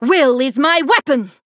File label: Female Draenei Attack